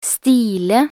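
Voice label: "stile", Norwegian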